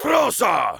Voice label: Heavy meleeing fr